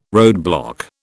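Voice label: "roadblock", British English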